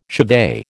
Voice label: "chevet", American